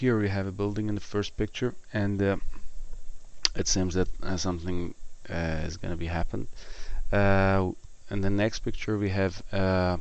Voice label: farsi high